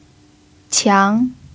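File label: "qiang" second tone, chinese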